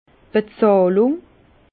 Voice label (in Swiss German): "bezahlung", Bas Rhin; vin; règlement